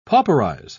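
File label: "pauperize", British English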